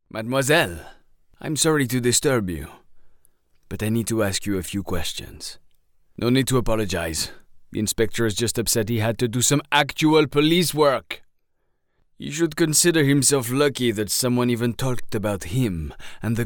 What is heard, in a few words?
French Investigator, French Voice Actor